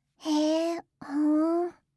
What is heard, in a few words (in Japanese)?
"hee fuun", reaction, voice